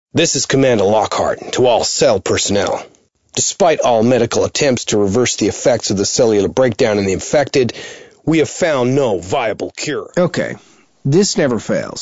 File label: American